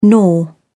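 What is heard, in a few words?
"nor", British English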